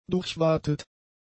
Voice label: "durchwatet", german; adjectives